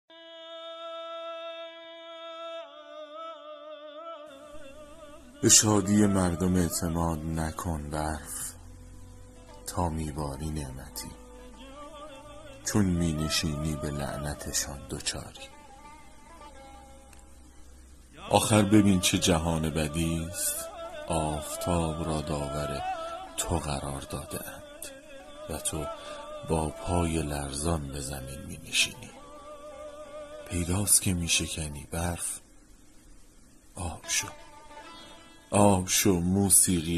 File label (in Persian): دکلمه; به شادی مردم اعتماد; shams langeroodi; barf